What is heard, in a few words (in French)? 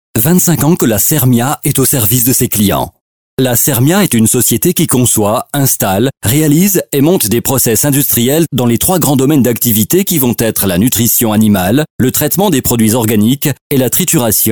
commentaire; Voix-Off